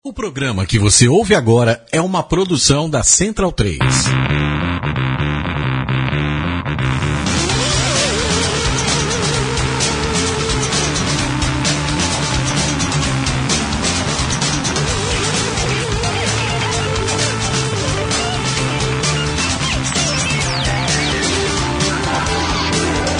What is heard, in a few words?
Radio Show; Thunder Radio Show